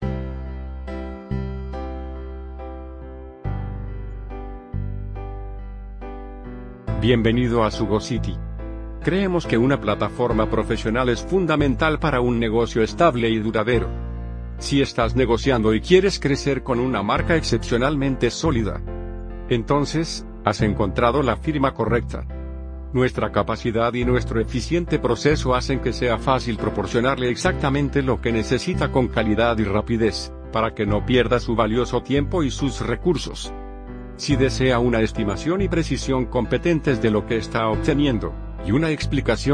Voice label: welcome music voice mixed; welcome to sugocity